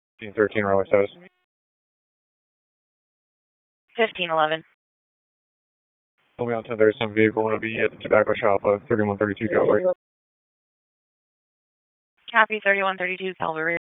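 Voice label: Police District Dispatch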